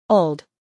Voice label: "old", English

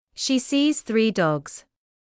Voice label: "She sees three dogs", English

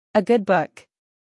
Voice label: "a good book", English

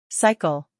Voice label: "cycle", English

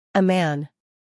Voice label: "a man", English

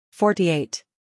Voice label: "forty-eight", English

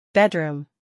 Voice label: "bedroom", English

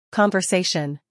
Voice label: "conversation", English

